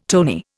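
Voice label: "too", Indian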